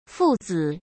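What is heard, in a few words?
"父子", Mandarin Chinese; hsk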